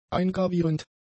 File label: "eingravierend", German; adjectives